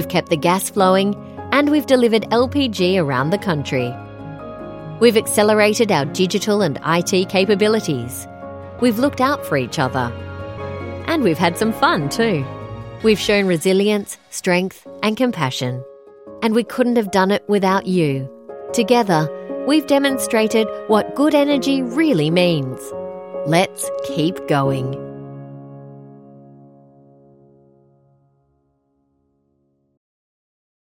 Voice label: English Australian corporate videos

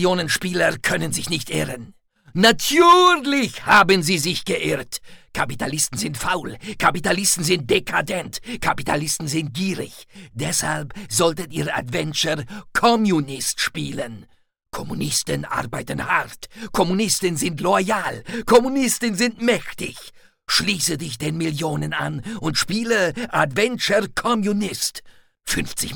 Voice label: german video games

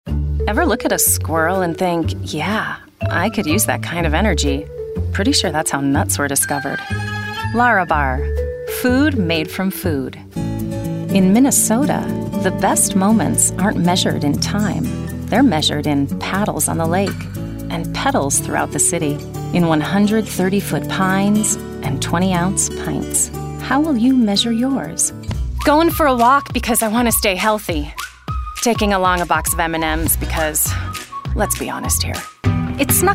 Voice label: english american commercial

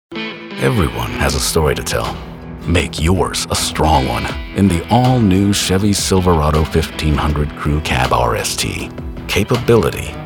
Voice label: english american automotive

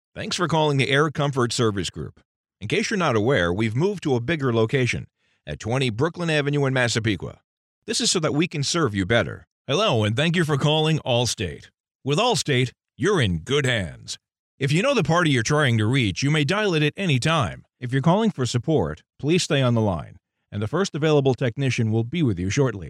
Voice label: english american ivr

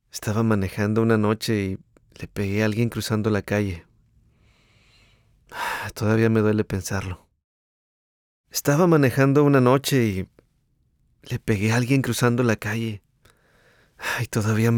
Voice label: spanish latin american impersonations

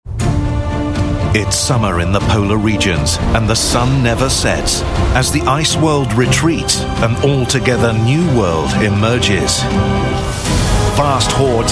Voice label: english british promos

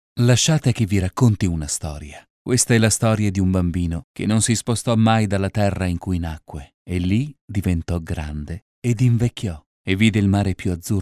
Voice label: italian

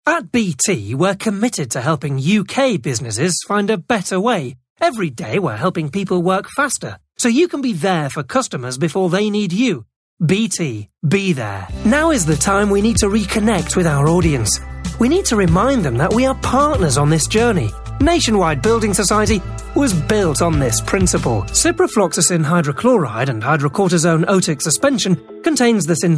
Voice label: english british corporate videos

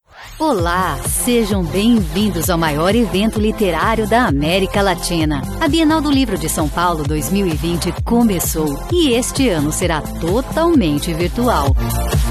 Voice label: portuguese brazilian promos

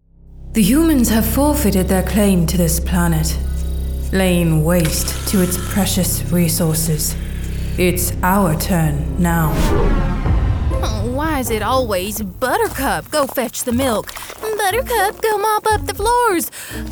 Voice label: english american video games

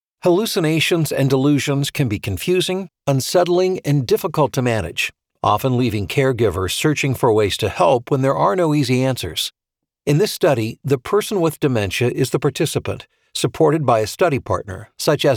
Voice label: english american medical narration

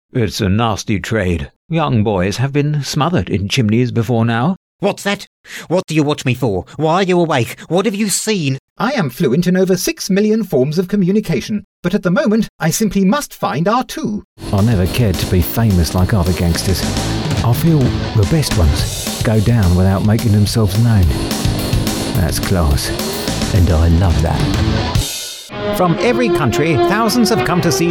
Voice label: english british animation

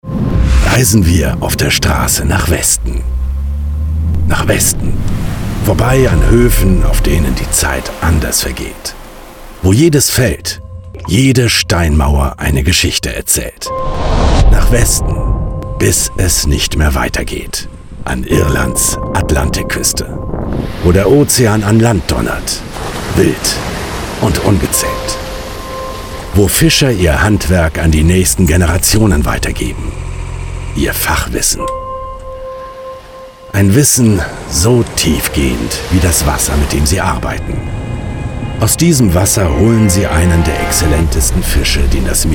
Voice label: german corporate videos, German voice actor

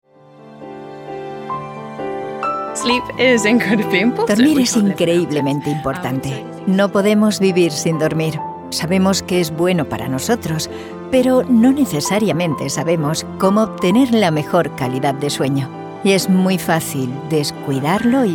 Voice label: spanish podcasts

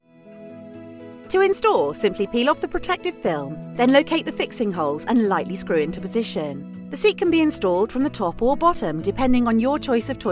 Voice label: english british explainer videos

